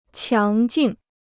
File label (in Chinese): "强劲", Chinese